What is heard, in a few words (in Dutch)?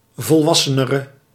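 "volwassenere", Dutch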